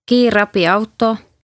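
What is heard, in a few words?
"Kiirabiauto" Estonian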